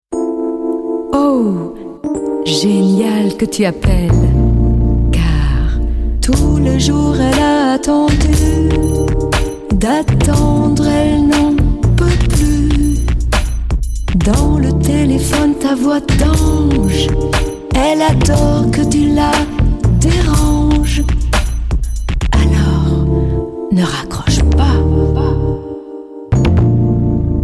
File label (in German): Mobilansage musikalisch; gesungen; französisch